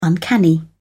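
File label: "uncanny", British English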